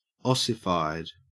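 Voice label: "ossified", Australian English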